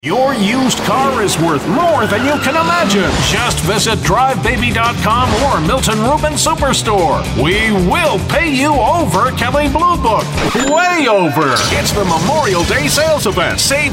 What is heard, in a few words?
Auto Dealer VO